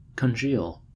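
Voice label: "congeal", American English; pronunciations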